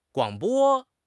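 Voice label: "guang3 bo1", Chinese; HSK4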